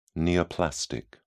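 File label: "neoplastic", British English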